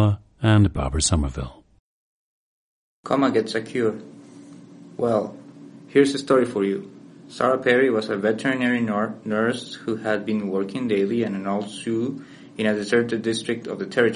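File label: costa rica, International Dialects of English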